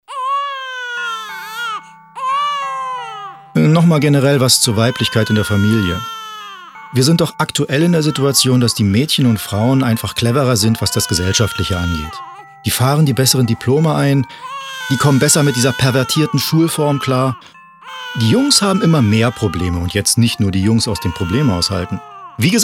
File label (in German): Mädchen; DR Kultur